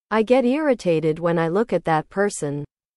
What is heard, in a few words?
english